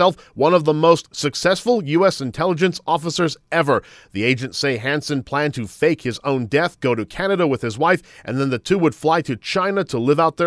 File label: CHINESE SPY VOICE PSA; defense intelligence officer arrested attempted espionage